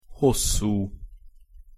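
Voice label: "hosszu", Hungarian pronunciation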